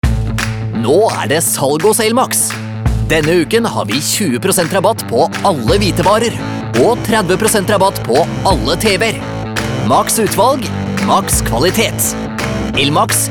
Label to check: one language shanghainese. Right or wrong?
Wrong